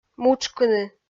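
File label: "мутшкыны", Komi, female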